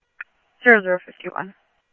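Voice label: Mesa Police Department, scanner audio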